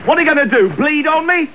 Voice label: male taunt; starsiege